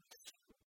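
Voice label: "поссянь" Komi, female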